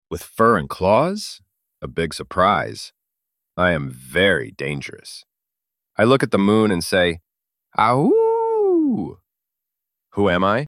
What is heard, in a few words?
werewolf, mystery voices